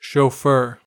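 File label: "chauffeur", American English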